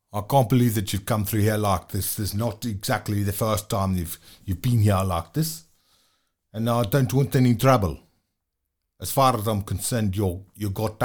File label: south african